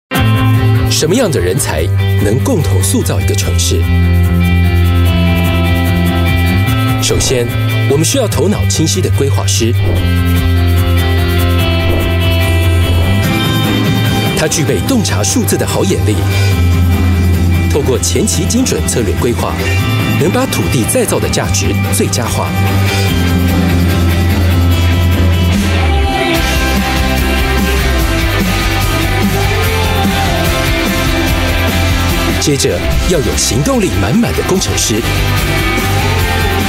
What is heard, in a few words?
chinese voice over male narrator